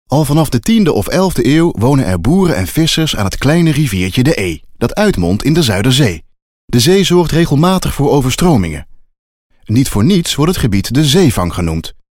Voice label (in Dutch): demo musea educatief